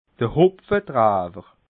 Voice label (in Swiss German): "hopfedrawer", alsace